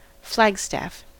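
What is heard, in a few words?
"flagstaff", US English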